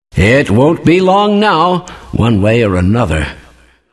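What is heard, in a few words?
announcer spectator; Kleiner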